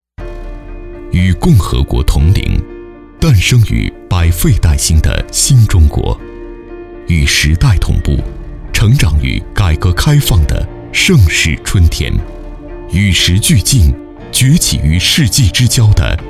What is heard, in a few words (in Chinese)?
男 宣传片 建筑公司形象片